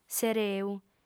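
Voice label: "s'ereu", female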